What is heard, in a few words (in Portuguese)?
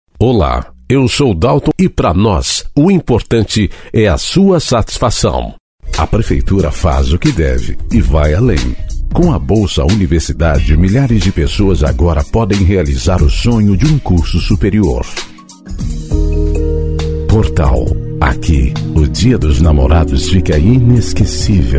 voz grave